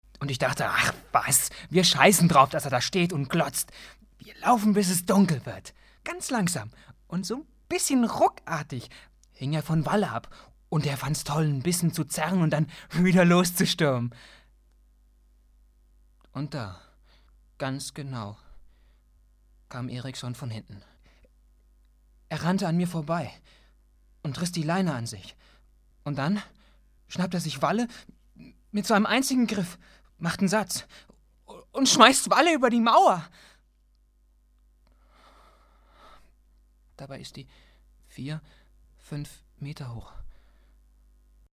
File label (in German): hörbuch